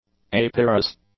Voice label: "apyrous", English; adjectives

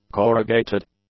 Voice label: "corrugated", English; adjectives

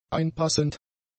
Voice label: "einpassend", German; adjectives

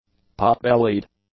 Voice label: "potbellied", english; adjectives

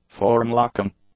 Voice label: "form-locking", English; adjectives

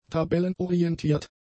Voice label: "tabellenorientiert", German; adjectives

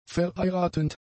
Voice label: "verheiratend", German; adjectives

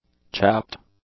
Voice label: "chapped", English; adjectives

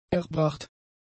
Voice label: "erbracht", German; adjectives

